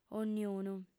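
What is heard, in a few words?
"ogniunu", Sardinian, female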